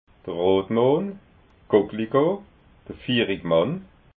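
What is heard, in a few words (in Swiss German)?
"rotmohn", Alsatian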